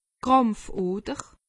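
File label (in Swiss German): "krampfoder", Alsatian; medecine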